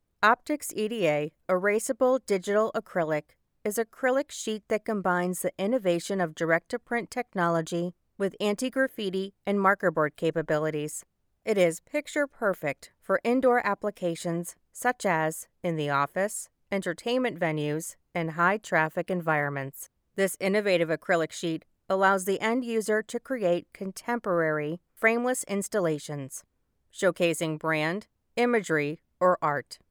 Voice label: Corporate Voice Over Sample